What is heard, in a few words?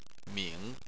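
"ming", Chinese, third tone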